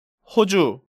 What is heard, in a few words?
"Hoju", Korean